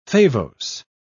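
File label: "favose", British English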